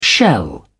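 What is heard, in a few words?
"shell", British English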